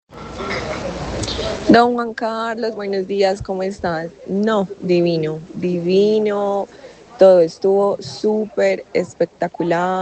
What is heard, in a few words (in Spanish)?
Testimonial